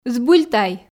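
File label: "збыль тай", Komi, female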